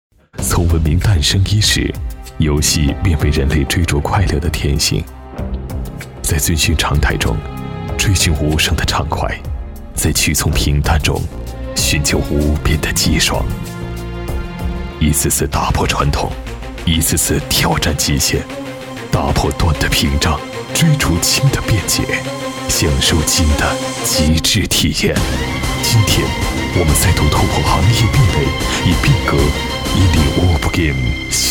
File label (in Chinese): 男 品质广告, 国语男声配音; 大气